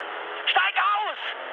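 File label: German bailout mood med, speech; War Thunder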